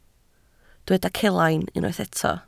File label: "celain", Welsh